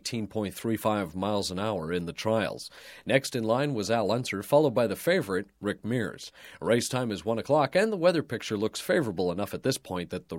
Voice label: Broadcast, Sports Generic Sports Report, Radio; Announcer; Sound Ideas; Series 2000